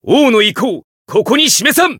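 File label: encounter, Japanese; claude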